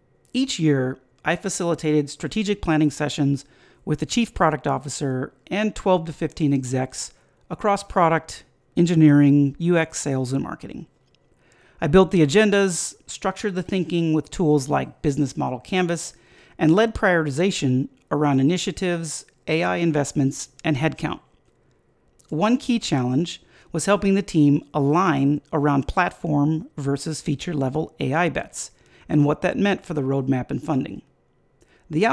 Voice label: voicetrack